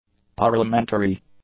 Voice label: "parliamentary", English; adjectives